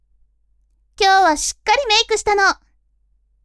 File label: women